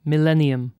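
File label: "millennium", British English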